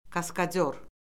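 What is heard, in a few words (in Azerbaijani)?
"kaskadyor", Azerbaijani; Orfoqrafiya Lüğəti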